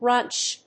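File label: "runch", English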